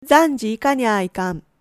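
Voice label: "zanji", Japanese dialect, woman; hogen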